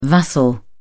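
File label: "vassal", British English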